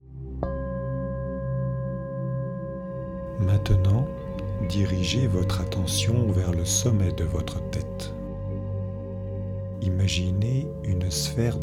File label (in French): Meditation guidee pour redonner de l'energie